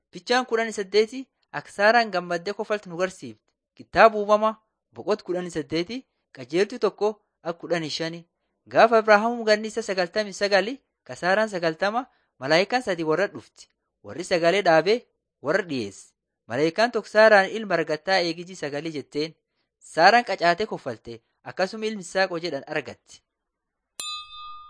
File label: borana sakuye; laali chaqasi and jiradin 1 waaqan yayabadi beginning with god